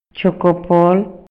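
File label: Maya, male; INALI; MayaYuc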